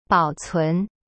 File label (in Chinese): "保存", Chinese; bao cun